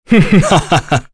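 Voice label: Vox Happy, voices heroes English; Kain; kingsraid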